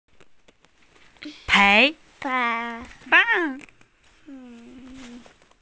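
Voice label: "pei2", Mandarin Chinese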